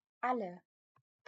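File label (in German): "alle", German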